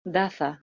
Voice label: "datha", Old Persian